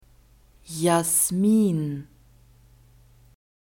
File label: "jasmin", German; nouns gender feminine